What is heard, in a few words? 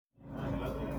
voice message; whatsapp